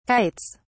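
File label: "kites", British English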